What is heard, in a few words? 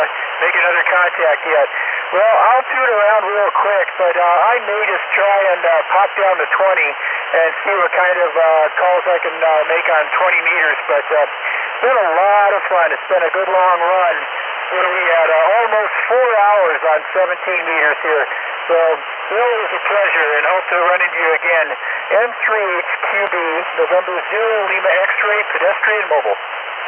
ssb qrp